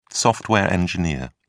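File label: "software engineer", British English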